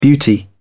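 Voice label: "beauty", British English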